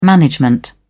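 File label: "management", British English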